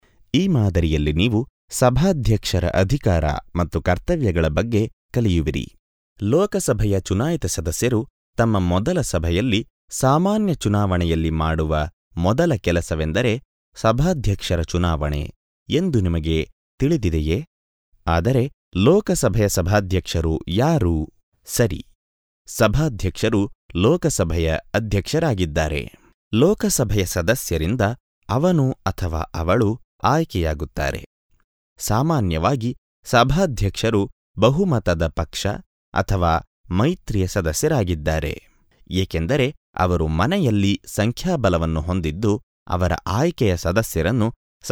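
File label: Kannada Sample Explainer, male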